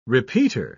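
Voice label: "repeater", British English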